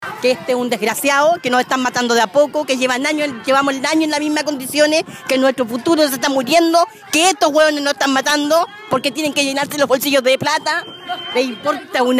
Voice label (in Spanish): cuña señora enojada